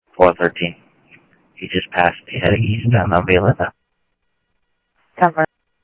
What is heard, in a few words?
Scottsdale PD Scottsdale Police, scanner audio; ThompsonPeakHigh; SCTSDLE PD - PD2